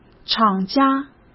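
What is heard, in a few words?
"厂家", Mandarin Chinese; hsk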